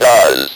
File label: "DOES", Speak & Spell